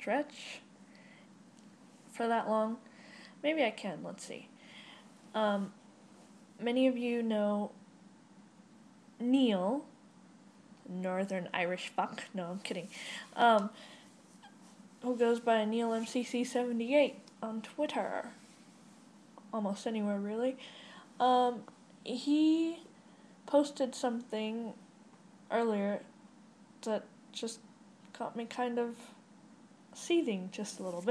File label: bit of a rant about train station assistance